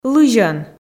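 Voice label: "лызьӧн", Komi, female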